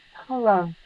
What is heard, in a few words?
Voice Acting, es